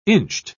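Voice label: "inched", British English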